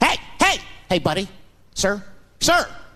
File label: "hey hey hey buddy sir sir", voice; ooh ahh